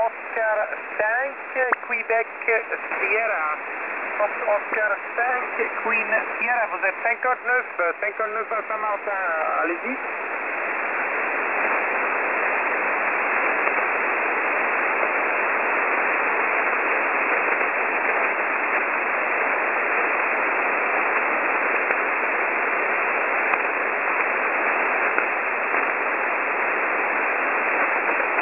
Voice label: qso 14226khz